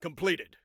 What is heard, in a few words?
Command Completed, Soldier Male